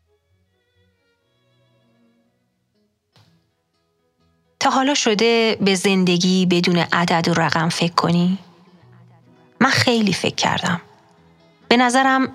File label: voice audiobook sher; ghasedak